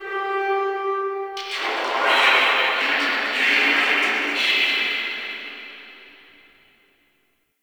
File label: Guild Announcer; Warframe